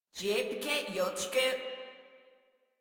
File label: Patrol Female; Warframe; Modular Corpus Female